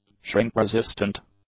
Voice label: "shrink-resistant", English; adjectives

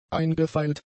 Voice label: "eingefeilt", German; adjectives

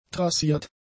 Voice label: "trassiert", German; adjectives

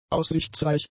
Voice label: "aussichtsreich", German; adjectives

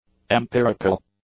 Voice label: "empirical", English; adjectives

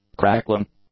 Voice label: "crackling", English; nouns

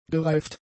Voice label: "gereift", German; adjectives

